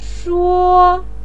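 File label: "shuo", Mandarin pinyin, first tone; 说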